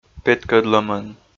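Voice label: "петкӧдлӧмӧн", Komi, male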